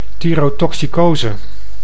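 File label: "thyrotoxicose", Dutch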